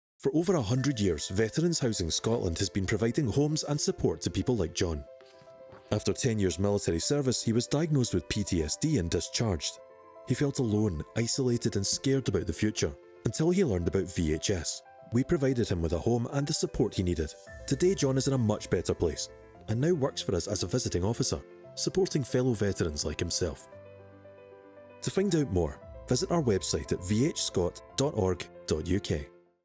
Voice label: Charity Commercial, Scottish voice overs